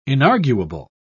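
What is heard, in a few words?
"inarguable", British English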